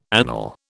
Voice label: "anele", British